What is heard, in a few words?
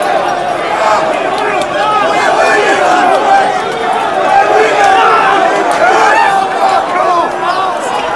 norf whinge